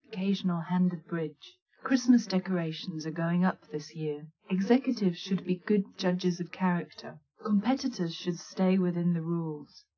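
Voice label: female speech; SiSEC10; underdetermined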